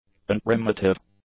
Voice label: "unprimitive", english; adjectives